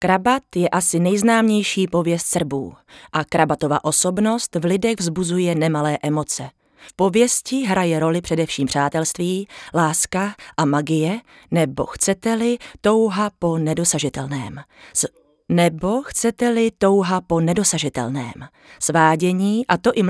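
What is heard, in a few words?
Czech, female, narration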